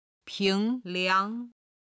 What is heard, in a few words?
"ping2 liang2", Chinese